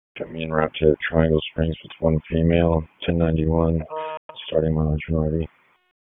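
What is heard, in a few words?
Police Southeast District Dispatch, p25; Raleigh; wake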